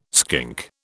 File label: "skink", British